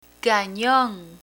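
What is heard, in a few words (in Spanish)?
"canon", Spanish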